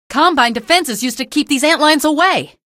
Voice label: alert antlions, female